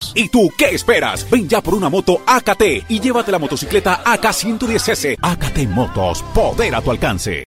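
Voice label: Latin American Spanish Commercial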